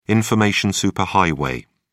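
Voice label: "information superhighway", British English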